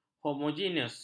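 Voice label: "homogenus", Malay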